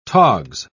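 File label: "togs", British English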